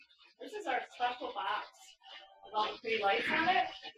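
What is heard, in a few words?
voice within a voice, EVP; Bruce mansion Feb 19 2017; Lower Michigan Parnormal Society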